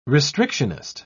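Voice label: "restrictionist", English, British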